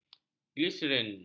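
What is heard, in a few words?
"gliserin", Malay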